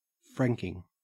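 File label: "franking", Australian English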